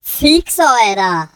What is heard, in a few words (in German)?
fix oida pitched vocal